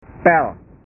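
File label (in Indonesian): "pel", Indonesian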